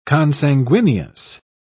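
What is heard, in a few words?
"consanguineous", British English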